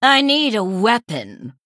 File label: Female San'layn error need weapon